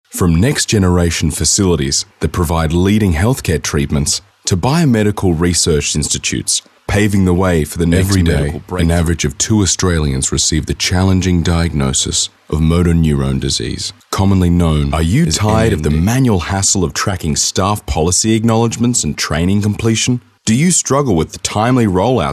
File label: Narration